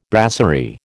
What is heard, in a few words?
"brasserie", American